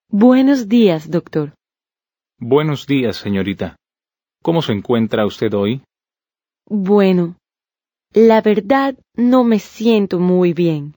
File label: Dialogue, Spanish